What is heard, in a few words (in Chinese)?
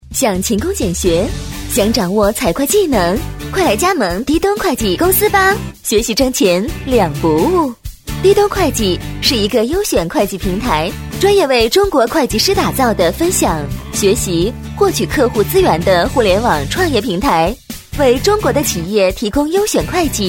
女 时尚动感; 滴咚会计公司